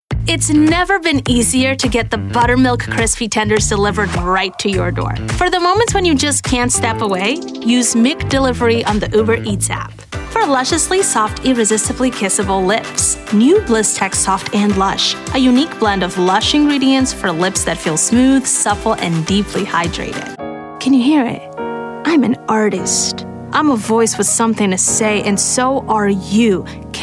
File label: voiceover